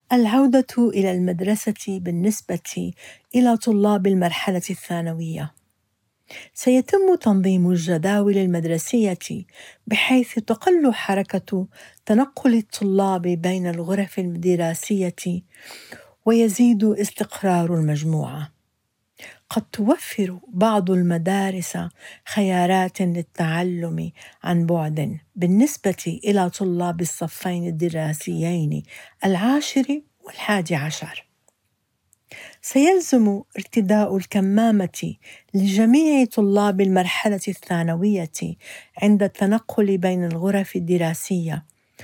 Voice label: ARAB

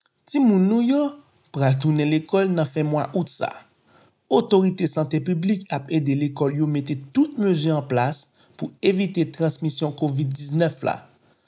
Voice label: Message Créole Haitien

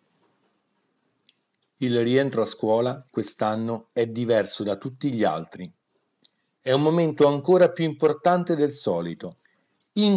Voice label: Message Italien

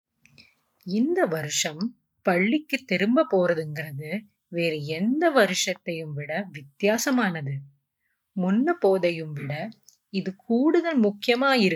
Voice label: Message TAMOUL

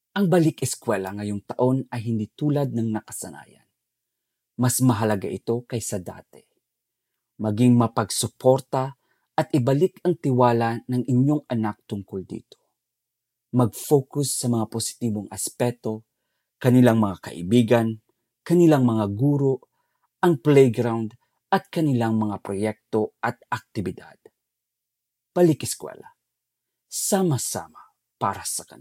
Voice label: Message Tagalog

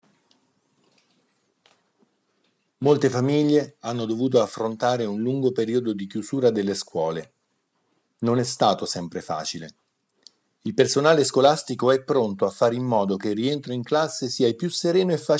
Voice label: Message Italien

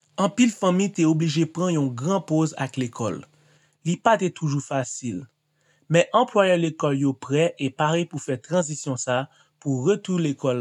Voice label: Message Créole Haitien

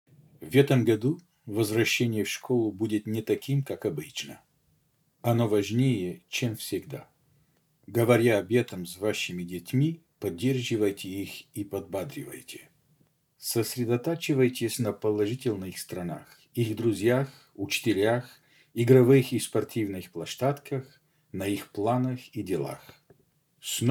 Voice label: Message RUSSE

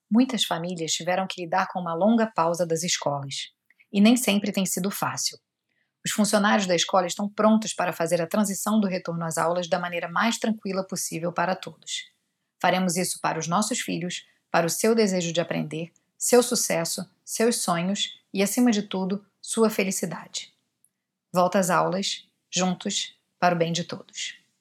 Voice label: Message PORTUGAIS

